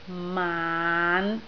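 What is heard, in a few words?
"maan5", Cantonese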